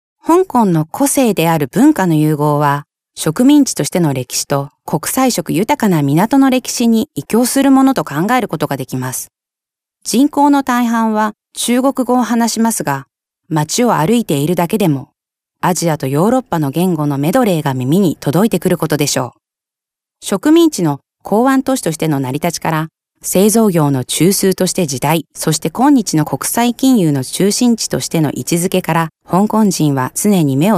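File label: Japanese female corporate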